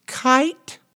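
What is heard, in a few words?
"kite", English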